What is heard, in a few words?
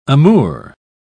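"amour", English, British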